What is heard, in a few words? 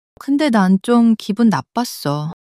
sadness, monologue